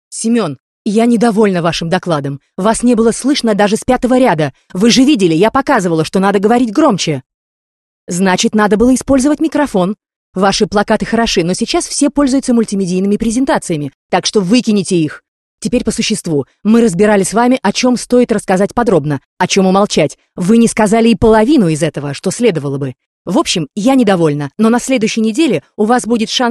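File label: Russian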